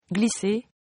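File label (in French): "glisser", French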